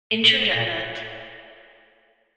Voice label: Intruder Alert British Female Voice, pa announcement